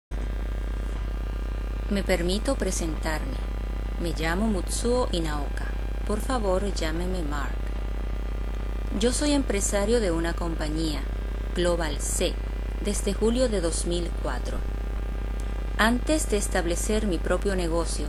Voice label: Intro spanish